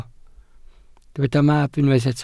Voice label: "mab", Welsh

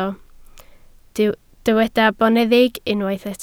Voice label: "boneddig", Welsh

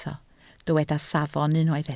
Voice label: "safon", Welsh

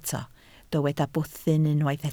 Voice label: "bwthyn", Welsh

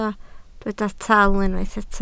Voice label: "taal", Welsh

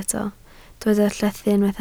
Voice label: "llethu", Welsh

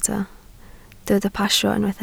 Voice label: "pasio", Welsh

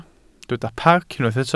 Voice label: "parc", Welsh

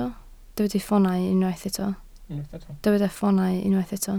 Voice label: "ffoonau", Welsh

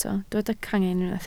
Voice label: "cangen", Welsh

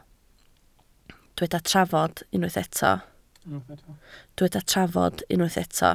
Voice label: "trafod", Welsh

